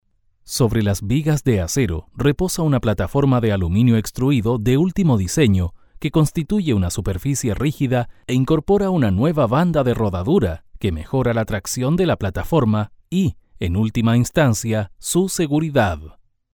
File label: locutor chileno chilean voiceover; Chilean male voiceover